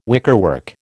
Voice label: "wickerwork", American